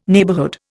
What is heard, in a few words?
"neighbourhood", Indian